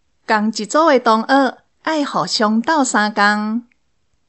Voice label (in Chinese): 課室生活用語 (台語)